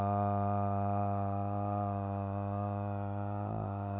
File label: Tongue dystonia